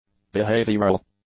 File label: "behavioural", English; adjectives